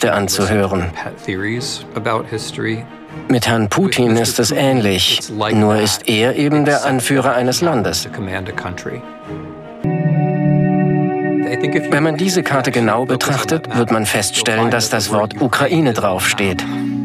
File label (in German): Voiceover Historiker